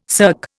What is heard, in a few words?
"cirque", Indian